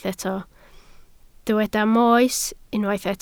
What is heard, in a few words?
"moes", Welsh